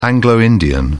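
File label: "anglo-indian", British English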